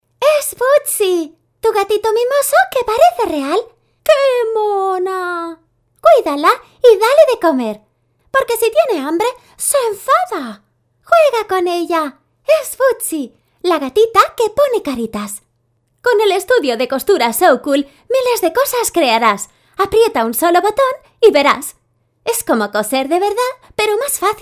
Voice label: Spanish video games